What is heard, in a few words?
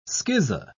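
"schizo-", British English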